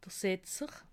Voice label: "setzer", alsace

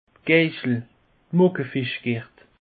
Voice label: "geischel", alsace; peche

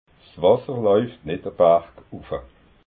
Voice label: "wasser", alsace

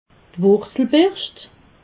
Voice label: "wurzelberscht", alsace

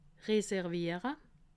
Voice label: "reserviere", alsace

